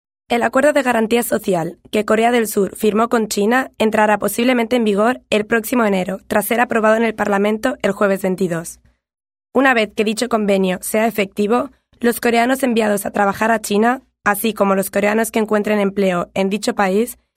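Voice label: Spanish EU, female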